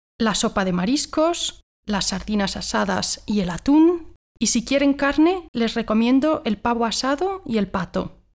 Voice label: conversation